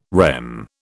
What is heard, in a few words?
"REM", British